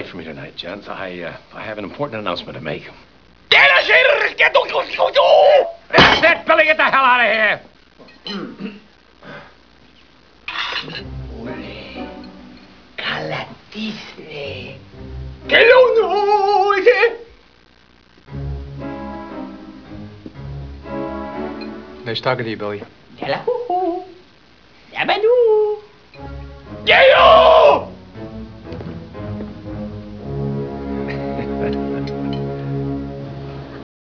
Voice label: gibberish